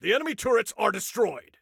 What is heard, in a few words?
Enemy Turrets, Soldier Male